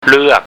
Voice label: "lueak", Thai